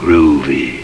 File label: Vox M Groovy